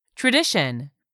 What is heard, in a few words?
"TRADITION", english; 3000 words